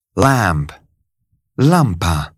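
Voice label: "lamp", English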